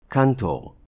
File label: "Cantor", Hebrew